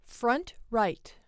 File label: Front right; SBLive; Creative